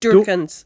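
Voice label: "doorchans", Cromarty Fishertoun dialect; Word; fishertoun dictionary; cromartymuseum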